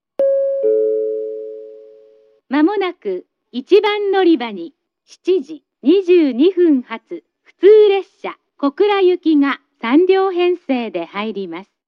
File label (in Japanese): announce; jrkyushu; kokura